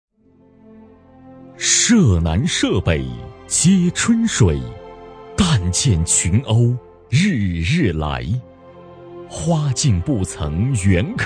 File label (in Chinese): 男; 赞美安佳谷